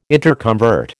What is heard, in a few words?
"interconvert", American